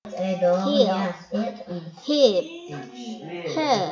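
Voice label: "hip", spoken word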